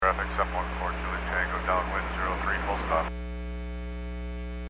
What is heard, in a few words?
Aviation 122.7; KSTE